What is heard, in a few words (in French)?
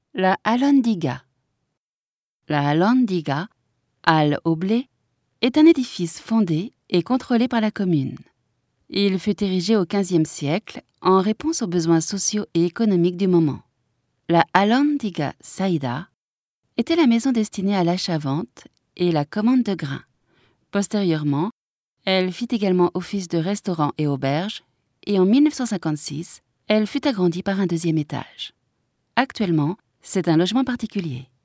audioguia, frances; audioguia baeza